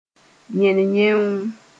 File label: "yẽnyẽoo", moore